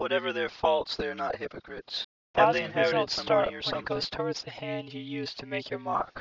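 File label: mixture 2 speakers male inharmonic stretch; Inharmonic: stretched; Timit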